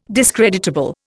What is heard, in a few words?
"discreditable", Indian